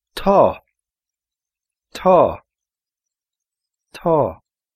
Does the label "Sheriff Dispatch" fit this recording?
No